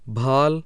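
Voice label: voiced aspirated bilabial, hindi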